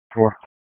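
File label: Dispatch 1, P25; WSO DISP 3; wake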